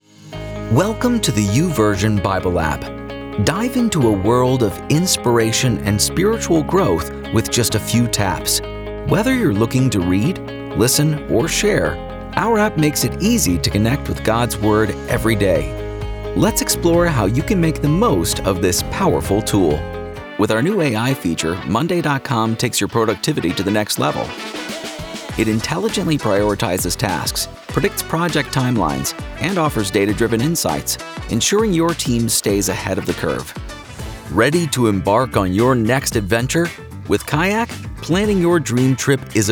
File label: Explainer